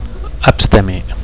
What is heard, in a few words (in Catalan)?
"abstemi", Catalan; teetotal